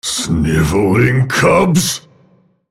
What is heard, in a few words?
attack, en US; Volibear; Character Voices - English